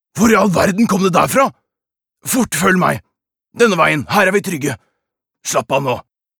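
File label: Norwegian dub, mann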